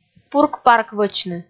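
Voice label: "пурк-парквӧчны", Komi, female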